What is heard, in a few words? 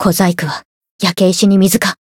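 Voice encount fear, Japanese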